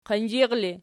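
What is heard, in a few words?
"qinzhir" ergative, Archi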